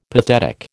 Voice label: "pathetic", American